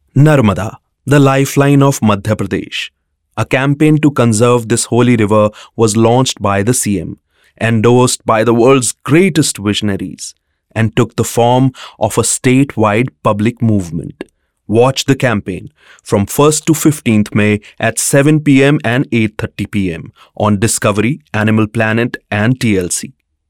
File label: narration English, male voice